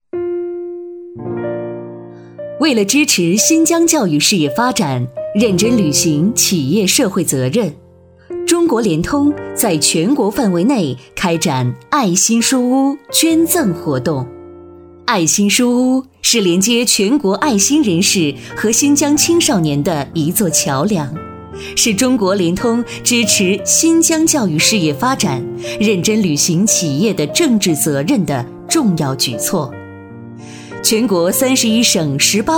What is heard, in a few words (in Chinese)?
女 专题 机关正式风格